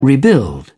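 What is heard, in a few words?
"rebuild", British English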